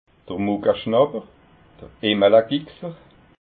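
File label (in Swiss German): "muckaschnapper", Alsatian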